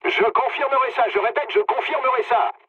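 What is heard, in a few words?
player killed enemy, mood low, French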